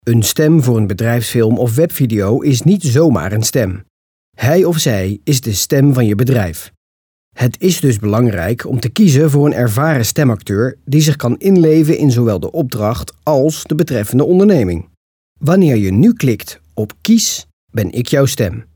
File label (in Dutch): BEDRIJFSFILM